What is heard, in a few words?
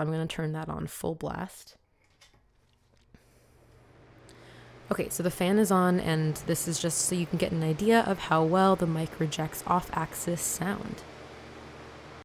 speaking microphone sample; Beyerdynamic M90 PRO X